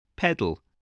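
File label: "pedal", English word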